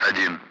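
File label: Speech Sound Russian Common Allied Flight; Digits; DCS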